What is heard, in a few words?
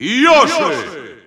Russian Announcer; Yoshi; SSBU